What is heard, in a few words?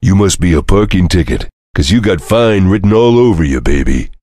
cheer; svencoop; voice commands; Duke